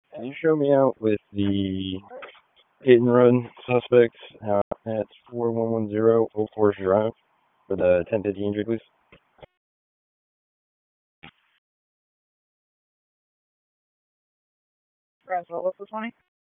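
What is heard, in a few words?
Police Dispatch; Raleigh; Northeast District; p25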